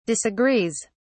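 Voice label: "disagrees", British English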